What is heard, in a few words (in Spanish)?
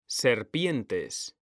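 "serpientes", male; mekanta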